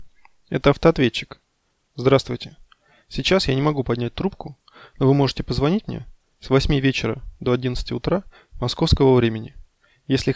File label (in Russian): автоответчик